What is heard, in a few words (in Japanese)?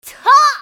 マスケッティア女･攻撃